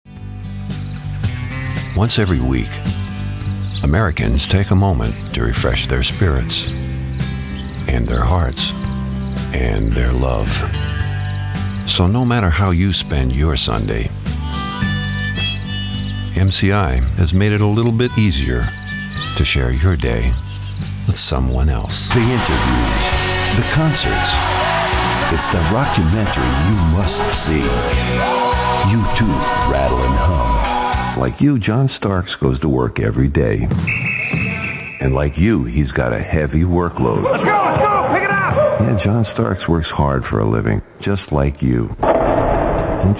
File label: Commercial VO